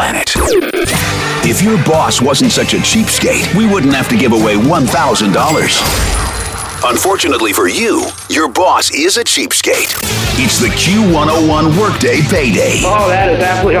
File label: rock radio imaging